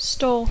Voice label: "store", English; verb tr conj emmagatzemar

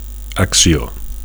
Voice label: "accio", Catalan

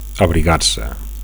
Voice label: "abrigar", Catalan; to bundle (somebody) up

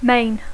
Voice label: "main", English; adjectiu; principal

